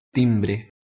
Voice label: "timri", Proto Germanic

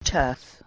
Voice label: "toeth", Anglo Saxon

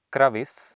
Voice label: "kravis", Sanskrit

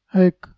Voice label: "hayk", Balochi

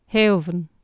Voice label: "heofon", Anglo Saxon

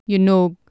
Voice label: "genog", Anglo Saxon

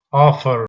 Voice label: "afer" Albanian

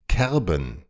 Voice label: "kerban", Proto Germanic

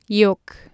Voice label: "geoc", Anglo Saxon

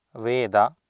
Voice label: "veda", Sanskrit

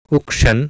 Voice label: "ukSan", Sanskrit

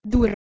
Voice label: "durr", Anglo Saxon

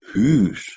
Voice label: "hus", Ancient Greek

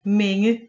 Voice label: "menge", Anglo Saxon

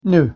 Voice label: "noo", Scots

